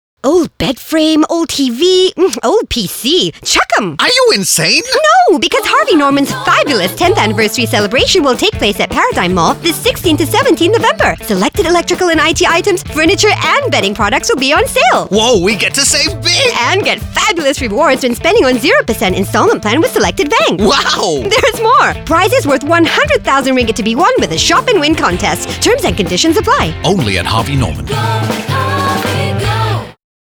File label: ENG; Harvey Norman FABULOUS